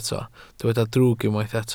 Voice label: "drwg", Welsh